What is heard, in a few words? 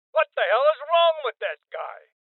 Voices Male Old; rebel cops 2019 gamerip